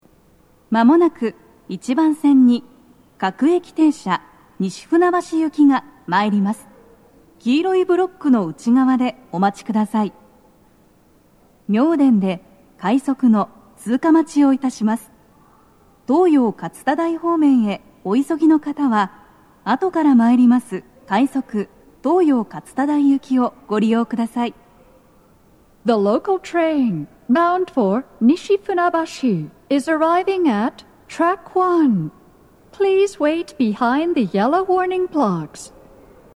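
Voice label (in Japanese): 接近放送, 女声, 各駅停車 西船橋行き, 妙典で、快速 東葉勝田台行きの通過待ちを致します; BOSEでの収録です。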